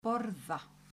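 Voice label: "borða", Icelandic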